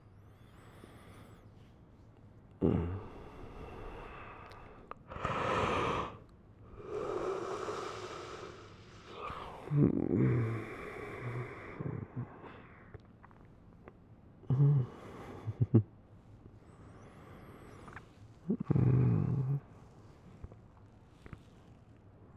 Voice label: long distance hotel wake up sfx